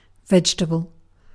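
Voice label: "vegetable", British English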